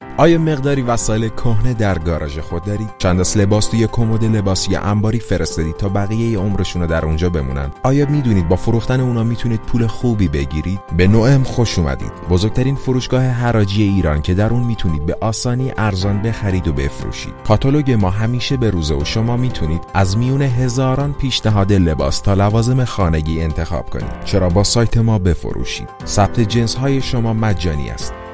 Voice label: Persian Farsi male